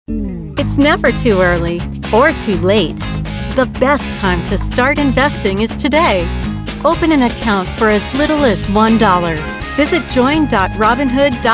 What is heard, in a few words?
Commercial